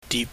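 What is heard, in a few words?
"dip", Cantonese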